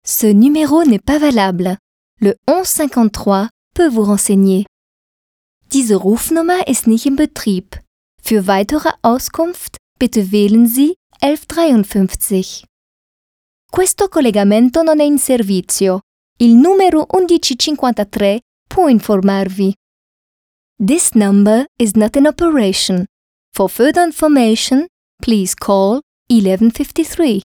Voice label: Information recording if someone dial the wrong tel number in french german italian; english